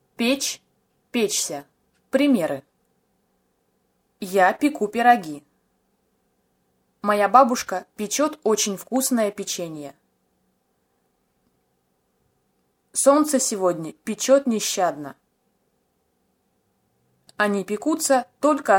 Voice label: pech examples, russian language